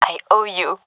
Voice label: thankful, gendarmerie fem epic; vo; agents